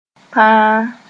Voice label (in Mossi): "tãa", moore